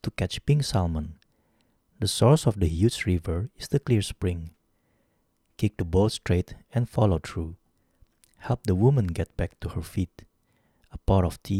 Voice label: Podmic radio